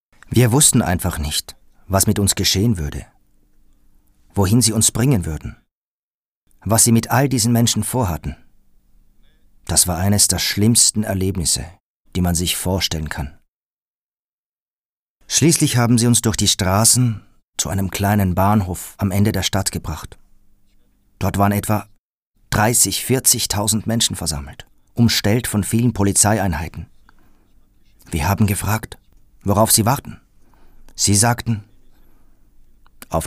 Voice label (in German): Synchronstimme; Kosovo